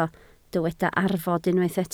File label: "arfod", Welsh